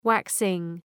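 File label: "waxing", English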